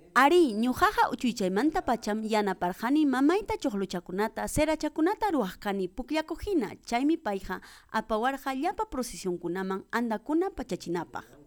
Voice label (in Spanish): voz doblada hija, quechua; VOZ DOBLADA CERERIA; ayacucho